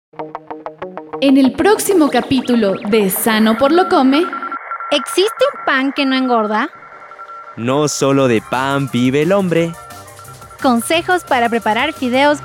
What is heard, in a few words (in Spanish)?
PROMO; CAP 22 PAN Y FIDEOS; alimentacion sana y actividad fisica en sano por lo come